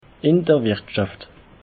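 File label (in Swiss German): "wirtschaft", Alsatian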